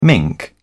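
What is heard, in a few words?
"mink", British English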